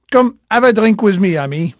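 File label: French male, voice; Pirates Of The Caribbean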